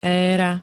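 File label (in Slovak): "éra", Slovak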